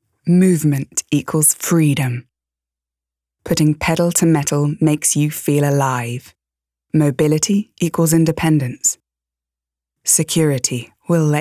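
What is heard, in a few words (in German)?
British English, Werbung; Xios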